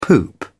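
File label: "poop out", British English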